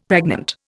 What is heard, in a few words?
"pregnant", Indian; Dictionary